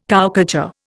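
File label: "cowcatcher", Indian English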